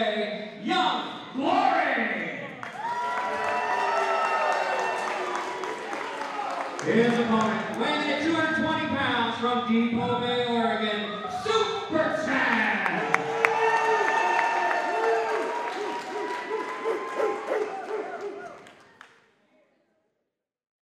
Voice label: wrestling introducing

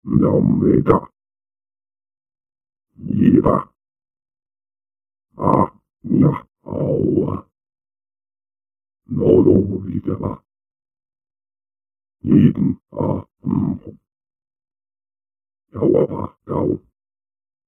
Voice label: alien

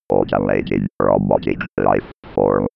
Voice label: computer voice authorisation

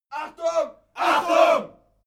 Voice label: military shout german attention

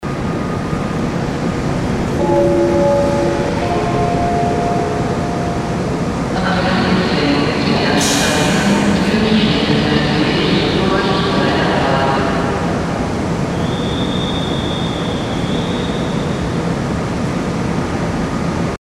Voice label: station announcement distant

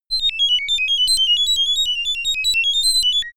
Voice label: talking robot

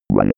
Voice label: robot counting

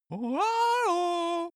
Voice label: cartoon male greet

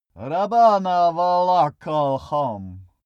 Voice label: male pray arabian

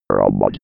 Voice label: 90s computer voice

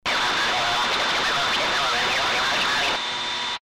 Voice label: battle radio communication

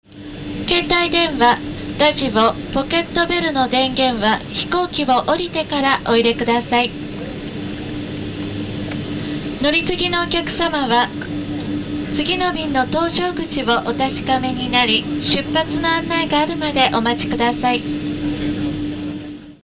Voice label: airplane japanese; Flugzeug - Verkehrsflugzeug

